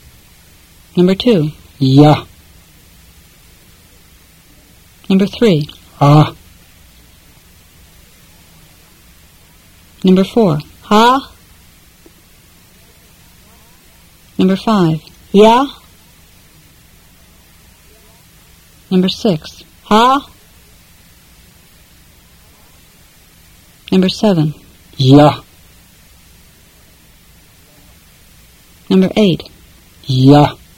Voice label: Fluent Tibetan drill